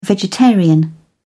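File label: "vegetarian", British English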